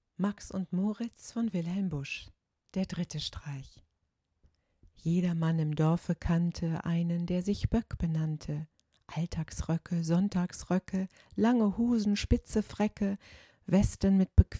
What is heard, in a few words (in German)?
auf Deutsch